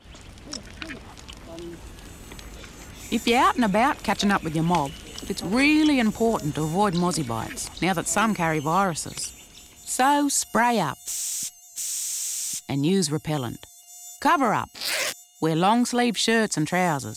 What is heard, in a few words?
radio aboriginal; targeted outdoors; mosquito-borne